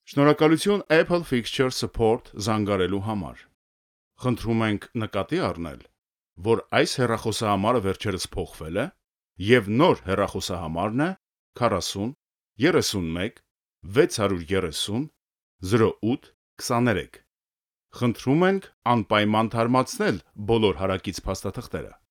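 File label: Armenian, male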